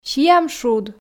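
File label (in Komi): сиам шуд, Komi, female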